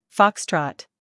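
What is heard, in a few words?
"Foxtrot", American English; sounds-of-american-english; everyone-can-use-english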